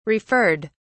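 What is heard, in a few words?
"referred", English, uk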